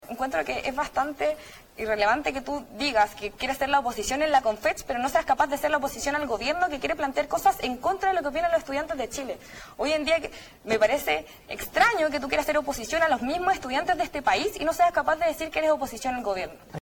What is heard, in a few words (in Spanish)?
cuña